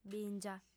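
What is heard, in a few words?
"bìngia", female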